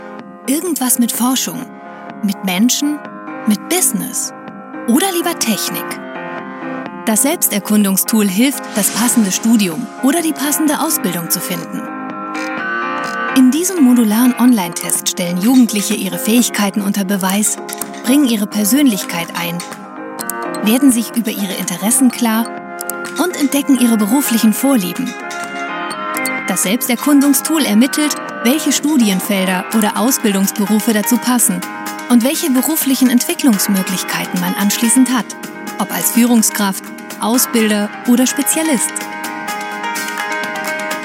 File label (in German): erklaerfilm bundesagentur